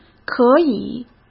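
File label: "可以", Mandarin; chinese-flashcards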